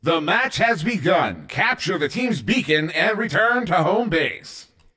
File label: Match Start, CTF Announcer